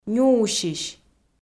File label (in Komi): "нюусись", Komi